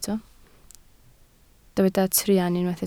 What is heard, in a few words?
"truan", Welsh